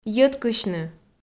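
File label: "йӧткысьны", Komi, female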